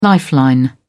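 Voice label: "lifeline", British English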